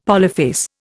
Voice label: "polyphase", Indian; Dictionary

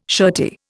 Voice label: "shirty", Indian

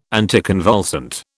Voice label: "anticonvulsant", British

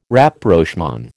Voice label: "Rapprochement", American

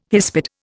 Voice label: "hispid", Indian

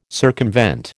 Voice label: "circumvent", American

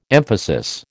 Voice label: "emphasis", American

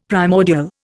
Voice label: "primordial", Indian; Dictionary

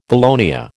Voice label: "valonia", American

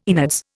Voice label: "innards", Indian

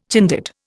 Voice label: "Chindit", Indian

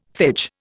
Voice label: "phage", Indian; Dictionary

